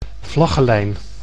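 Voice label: "vlaggelijn", Dutch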